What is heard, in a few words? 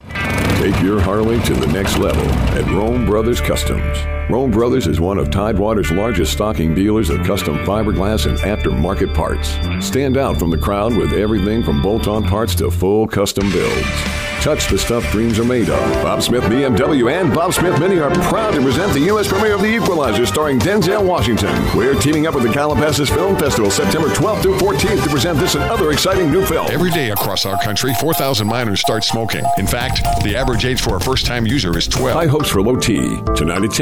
Commercial, male